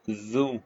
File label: short voyels arabic alphabet